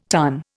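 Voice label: "tonneau", Indian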